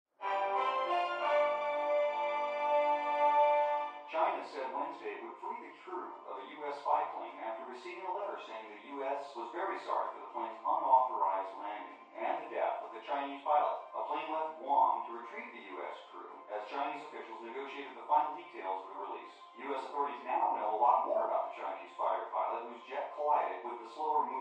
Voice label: Tv Male News Report

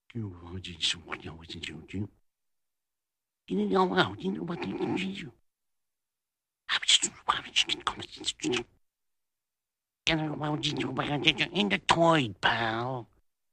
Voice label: Man Whispers

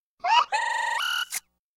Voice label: Sexy Robot Various Fe, cartoon gadget fx

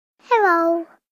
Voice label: 3 Yr Old Girl Says Hi; kids play

